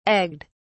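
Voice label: "egged", British English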